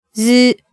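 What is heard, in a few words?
"zu", Japanese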